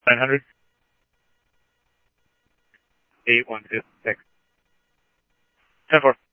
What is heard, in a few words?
Scottsdale Police, scanner audio; SCTSDLE PD - PD2; ThompsonPeakHigh